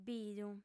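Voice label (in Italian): "bidu", lingua sarda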